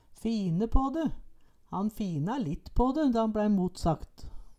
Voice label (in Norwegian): fine pa de, Numedalsmål; Han fina litt på de dan bLæi motsakt